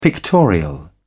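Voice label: "pictorial", British English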